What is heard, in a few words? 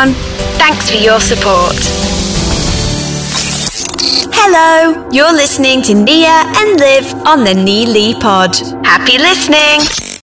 Podcast